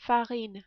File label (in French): "farine", French